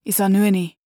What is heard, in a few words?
neutral short